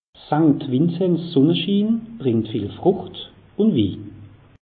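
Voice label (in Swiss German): "sankt vinzens", Alsatian; meteo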